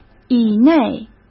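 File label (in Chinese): "以内", Mandarin Chinese; chinese flashcards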